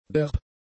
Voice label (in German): "derb", german; adjectives